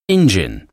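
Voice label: "engine", English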